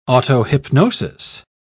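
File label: "autohypnosis", English, British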